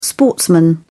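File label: "sportsman", British English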